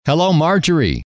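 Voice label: "hello", male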